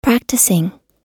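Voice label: "practising", American English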